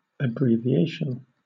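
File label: "abbreviation" British English; pronunciation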